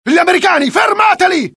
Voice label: alert, dialogue IT; mohaa; attack

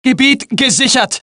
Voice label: German; mohaa

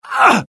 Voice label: death, UK; mohaa; dialogue

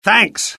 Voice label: alert, UK; mohaa; dialogue

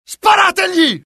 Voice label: alert, dialogue IT; mohaa; attack

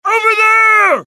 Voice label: alert, dialogue generic US; mohaa

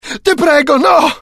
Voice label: fear, IT; mohaa; dialogue

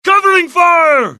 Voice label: suppress, dialogue generic US; mohaa

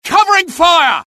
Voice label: suppress, dialogue UK; mohaa

